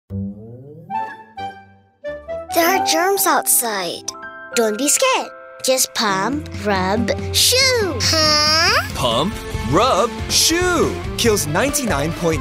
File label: Handwash Eng; 15sec; Lifebuoy